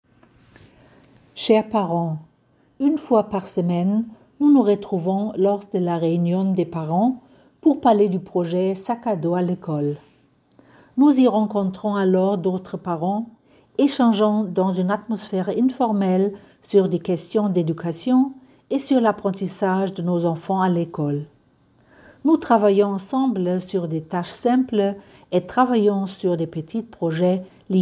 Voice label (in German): Schule Franzoesisch